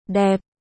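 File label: "Đẹp", Vietnamese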